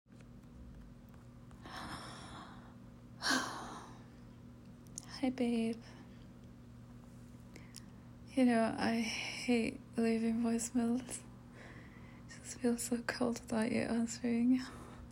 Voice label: Breathy, Whispers, Gasps, Soft Spoken; [Girlfriend Experienc I Miss You [Tender]

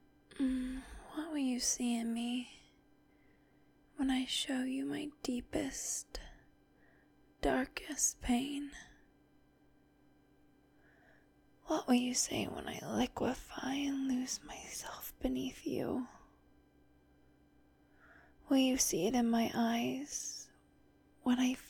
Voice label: erotic poetry, sensual, intimate; fsub; Nothing But Yours

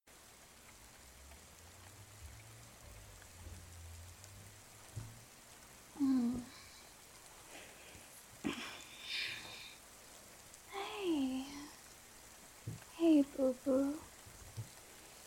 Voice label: F4A Soft Rain Sounds Sleep Companion; Think Of Only Good Things; GFE; Deciding Who's Big Spoon

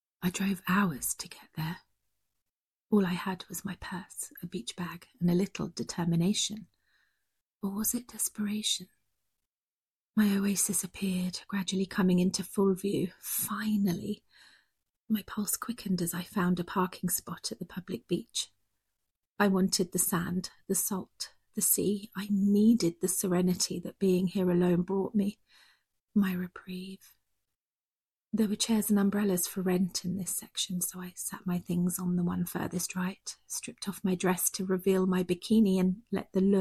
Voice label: F4A, Narrative; The Siren s Awakening; Summer Nights

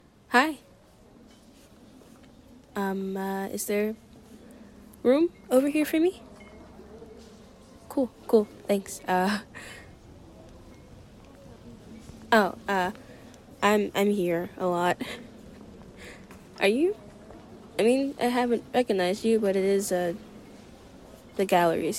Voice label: F4A SFW Wholesome Compliments SFX Improv Ramble; [F4A] Be My Muse

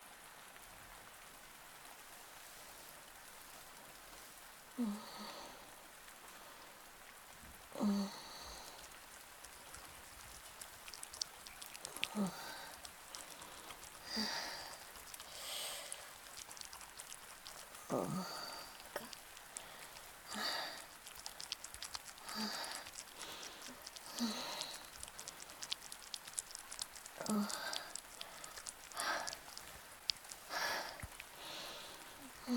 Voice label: Narrative with sfx Brit; F4M; Second Person version

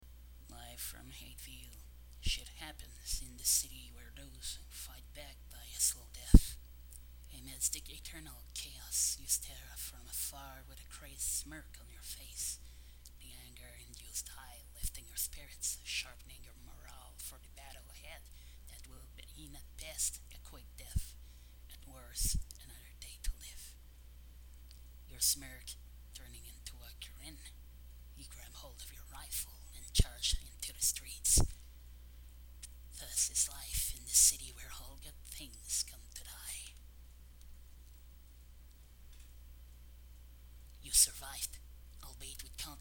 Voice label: voice acting test

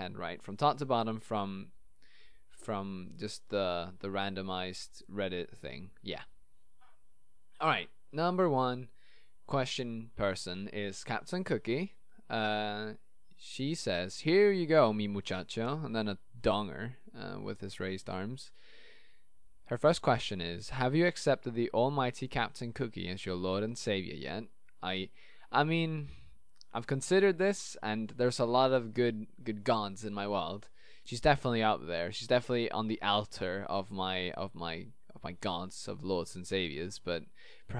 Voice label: Answers Laughing Silly Accents Some Singing; [Stuttering]; [Mispronunciations]

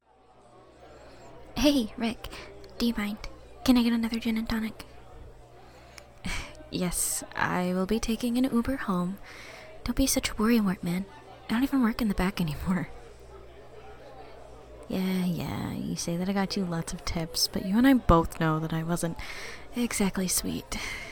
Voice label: F4M Wet SFX Kissing; Coming Home; [High School Friends]; [PIV]; [Neck

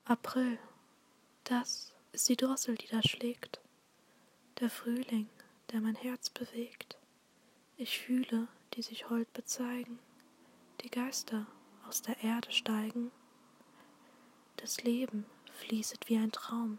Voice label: Reading Some Poetry in German German; F4A

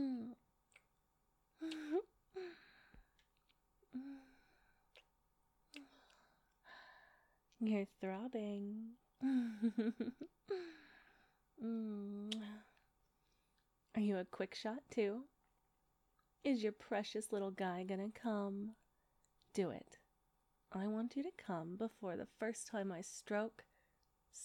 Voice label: short cute teasing; Quickshot

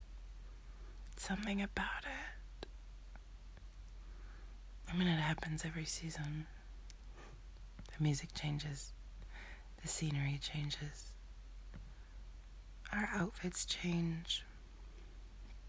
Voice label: Voicemail; Fall Voicemail

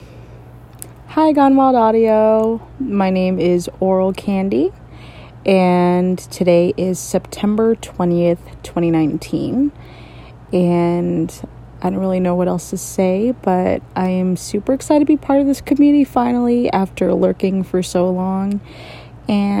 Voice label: F Verification Nervous giggles ahead

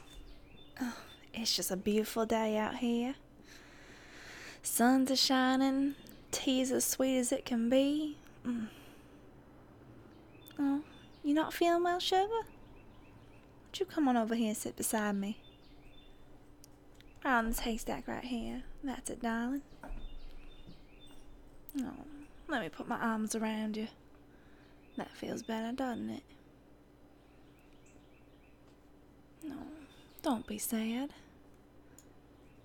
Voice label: Southern Sweetness, Accent, comforting, gentle; nosex; F4A; Erotic audio

